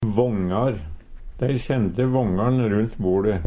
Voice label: vangar, Numedalsmål; Dei sende vångar`n rodnt boLe.; Hushald, mat, drikke

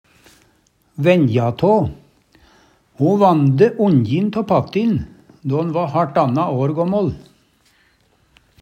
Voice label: venja tå, Numedalsmål; Ho vande onjin tå pattin då'n va haRtanna år gåmmål.

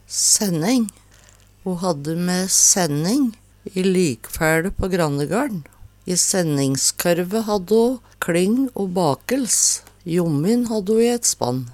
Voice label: senning, Numedalsmål; Det var fast mengde i ei senning t.d. 4 kast med kling.

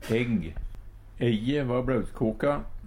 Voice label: "egg", Numedalsmål

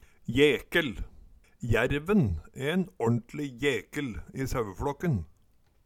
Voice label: jekel, Numedalsmål

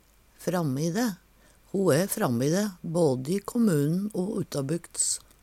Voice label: frammi dae, Numedalsmål

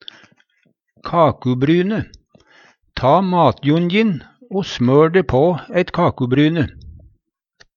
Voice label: kakubryne, Numedalsmål; Hushald, mat, drikke

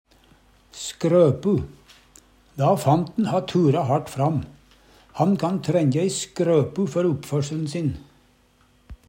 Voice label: "skropu irettesetjing", Numedalsmål

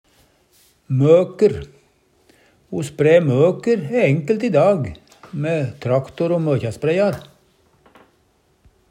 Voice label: moker, Numedalsmål; Substantiv hokjønn

